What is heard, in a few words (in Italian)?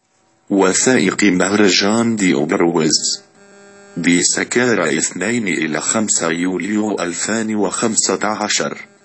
arabo